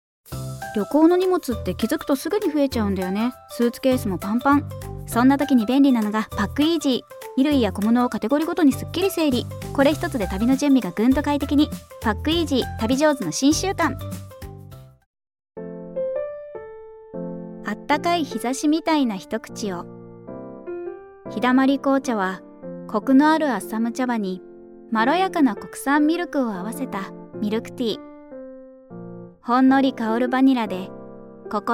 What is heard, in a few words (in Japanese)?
CMナレーション（早口、ほんわか、クール、モノローグ）